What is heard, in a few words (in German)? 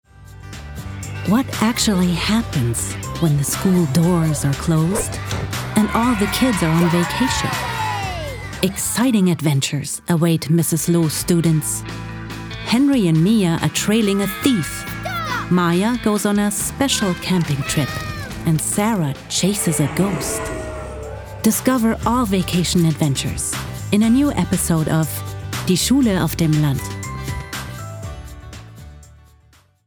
Hoerspiel Teaser Englisch